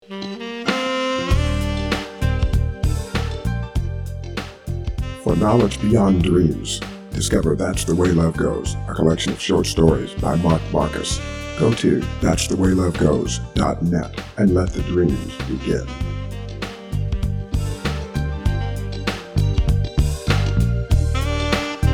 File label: Talking Voice Over